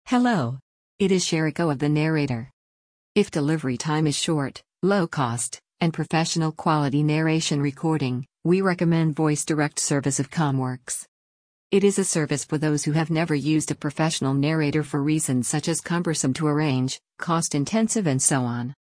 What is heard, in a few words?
speech eng f